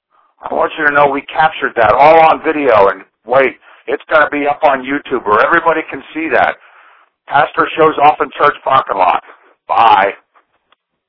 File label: voicemail